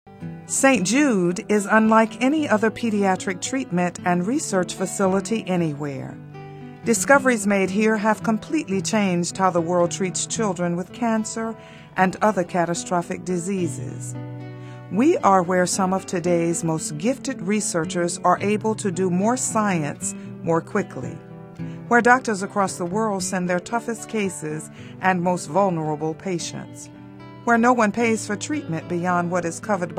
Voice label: corporate